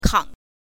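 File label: "kang", Mandarin Chinese, neutral tone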